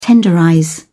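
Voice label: "tenderize", British English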